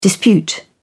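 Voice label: "dispute", British English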